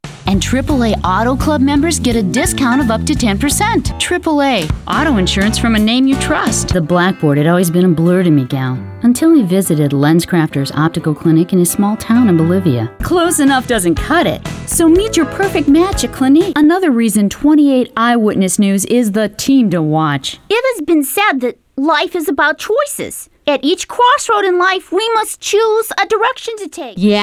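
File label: Character Narration; Comm Stations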